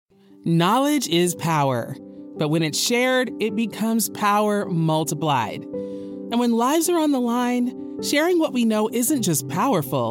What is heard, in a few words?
Cardiovascular Health Sample, Hospital Psa, African-American, Female Voice Over; Television Spots